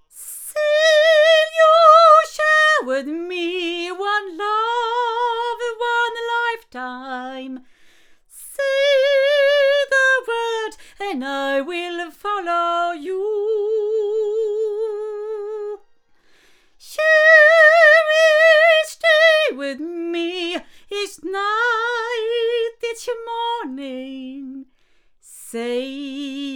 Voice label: female; Milab DC-96C; microphones